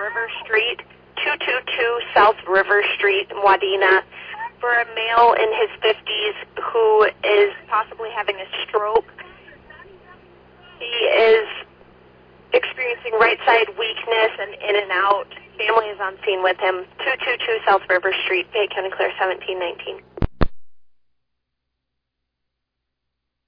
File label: Ambulance, dispatch